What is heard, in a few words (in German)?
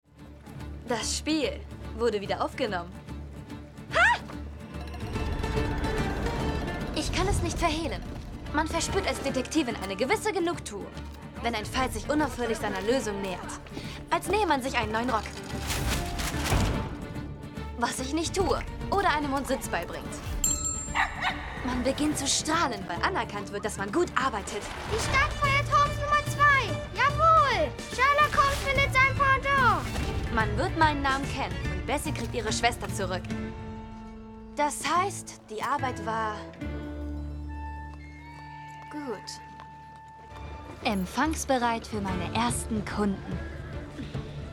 Sprachprobe, Lip-Sync (Synchron), German; Jung (18-30); Enola Holmes; Germany